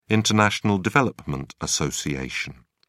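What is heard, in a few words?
"international development association", British English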